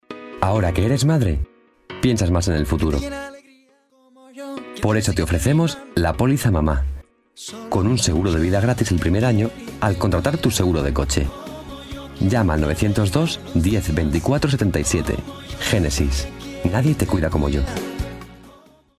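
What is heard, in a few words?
Spanish Spain, male, voice over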